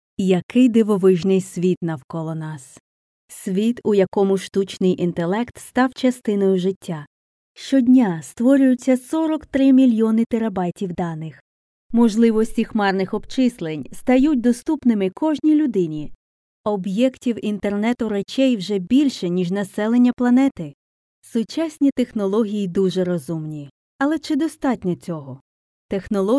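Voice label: Let s put smart to work Ukrainian, warm, friendly, youthful Female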